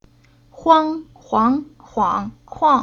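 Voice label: "Huang", Chinese syllable; Уроки фонетического блока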